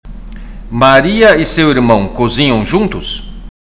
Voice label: Portuguese Listening; Portuguese Test